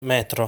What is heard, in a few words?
"Metro", Italian; lesson 6 articles plural